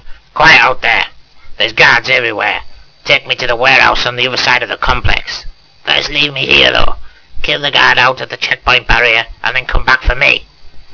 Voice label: old man